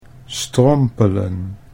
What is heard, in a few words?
"strompelen", Dutch